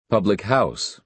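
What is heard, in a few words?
"public house", American English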